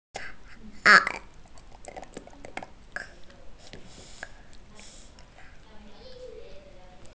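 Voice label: "là jiāo", Mandarin Chinese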